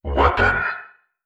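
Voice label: "weapon", synthesized voice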